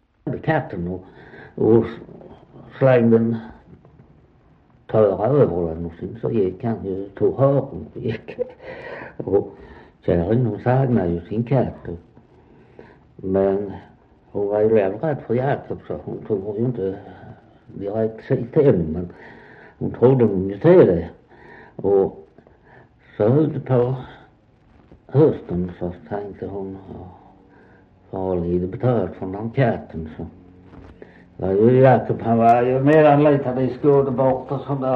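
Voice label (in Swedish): Västra Torup Skåne, Svenska sagor dialekter; Folkminnen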